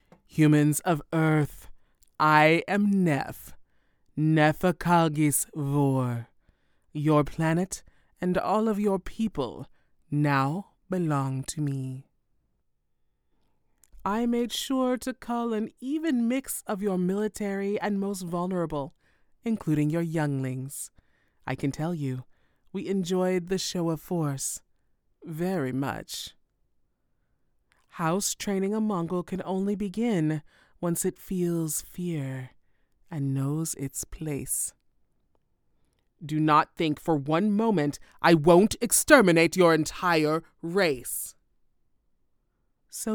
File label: Animated Witch Wise Scary old wizard, Character, Cartoon, Mysterious Old Witch, Female Voice Over